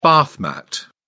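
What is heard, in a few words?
"bath mat", British English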